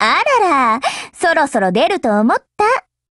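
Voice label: HELLO Japanese, Voice; GF Alarm; AEK999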